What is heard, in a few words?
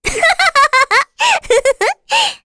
Happy, kr, voices; heroes; Rehartna; kings raid